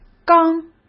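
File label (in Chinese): "钢", Mandarin Chinese; chinese-flashcards; hsk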